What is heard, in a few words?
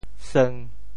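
"seung1", Teochew; 潮州; 黃酸㾪瘦; 臉黃肌瘦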